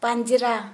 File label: Santali Rib